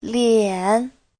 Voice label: "lian3", chinese; 脸; Giáo trình chuẩn HSK3

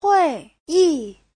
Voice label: "hui4 yi4", chinese; 会议; Giáo trình chuẩn HSK3

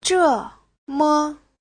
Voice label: "zhe4me5", Chinese

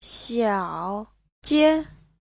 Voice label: "xiao3jie5", Chinese

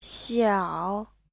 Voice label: "xiao3", Chinese

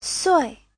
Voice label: "sui4", Chinese; 岁lượng từ : tuổi

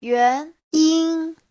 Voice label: "yuan2 yin1", Chinese; 原因; nguyên nhân

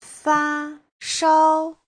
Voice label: "fa1 shao1", chinese; 发烧; (động từ) sốt; Giáo trình chuẩn HSK3

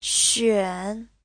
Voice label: "xuan3", Chinese

